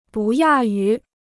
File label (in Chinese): "不亚于", Chinese